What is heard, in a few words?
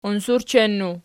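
"unsurchennu" nominative, Archi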